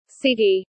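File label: "ciggie", British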